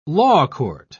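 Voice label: "lawcourt", British English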